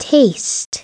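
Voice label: "taste", English; SoftKey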